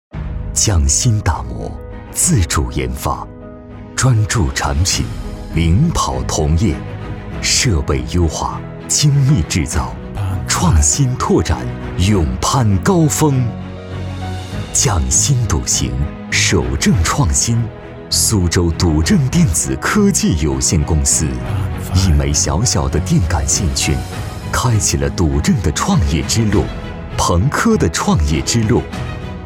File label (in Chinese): 男 企业 科技感 稳重; 苏州电子